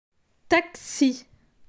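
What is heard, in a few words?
"Taxi", French; phonetique; la graphie x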